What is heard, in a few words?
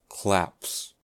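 "claps", English, US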